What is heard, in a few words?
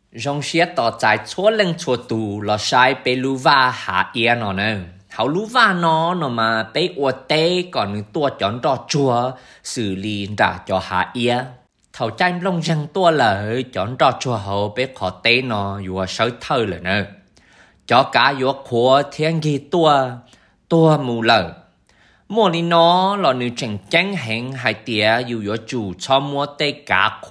Hmong; Marshview